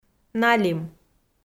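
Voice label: "налим", Komi-Zyrian, female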